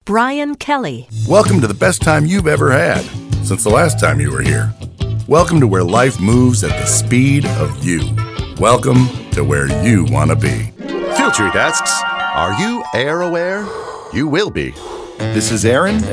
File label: Commercial